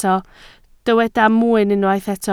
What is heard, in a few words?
"mwyn", Welsh